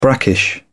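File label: "brackish", English, British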